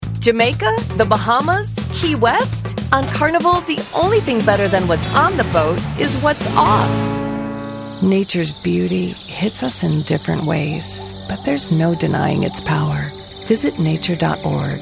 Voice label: Commercial, Professional Female Voice Over; warm and persuasive